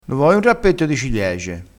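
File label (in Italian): "rappetto", vocabolario dialettale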